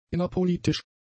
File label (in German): "innerpolitisch", German; adjectives